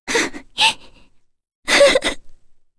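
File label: Vox Sad, Korean; Kirze; kingsraid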